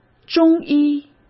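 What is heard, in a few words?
"中医", Mandarin Chinese; hsk; chinese-flashcards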